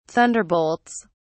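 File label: "thunderbolts", British English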